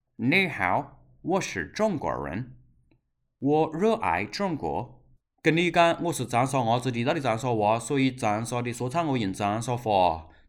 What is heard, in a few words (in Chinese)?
长沙话